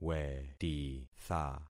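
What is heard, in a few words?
"wetitha", concatenated syllables; Affixing experiment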